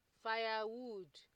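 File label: "firewood", Nigerian Pidgin, female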